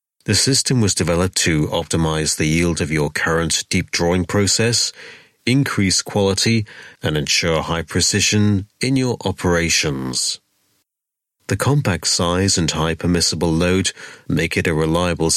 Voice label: E-Learn, english voiceovers